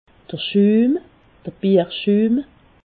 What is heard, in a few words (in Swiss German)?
"schum", Alsace